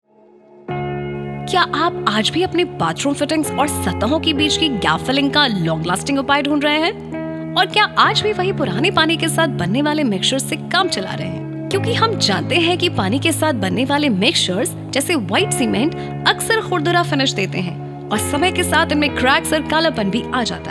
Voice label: Hin, female voice artist from New Delhi for hindi and English - baritone voice; Bathmate; Voice Artiste female